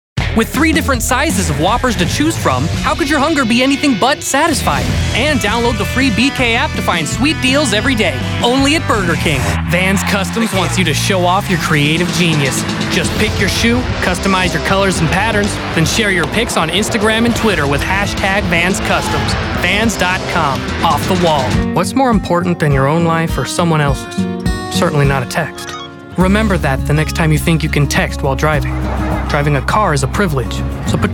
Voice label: Commercials, An exuberant, animated and dynamic voice